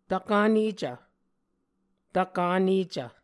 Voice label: "tvkanecv", Muscogee

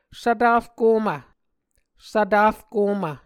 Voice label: "svtarkomv", Muscogee

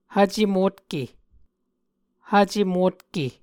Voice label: "hvce motke", Muscogee

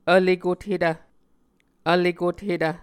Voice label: "vlekothetv", Muscogee

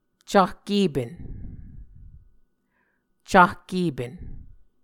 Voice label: "cahkepen", muscogee

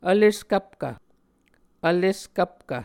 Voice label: "vleskvpkv", Muscogee

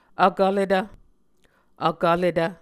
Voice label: "vkvletv", Muscogee

